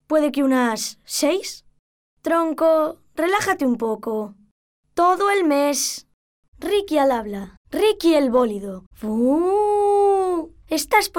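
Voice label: Spanish boy; children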